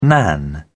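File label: "nan", British English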